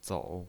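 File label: "zou", Mandarin Chinese, tone 3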